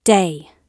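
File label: "day", British English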